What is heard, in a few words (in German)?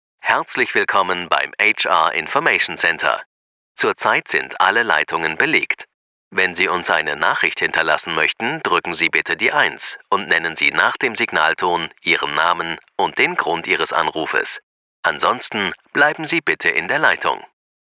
telefonansage